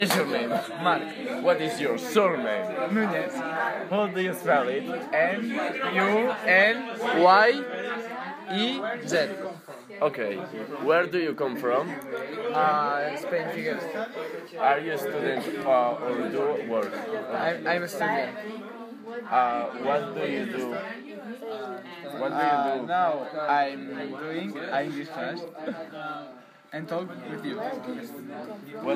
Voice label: classroom conversation